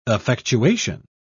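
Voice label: "effectuation", British English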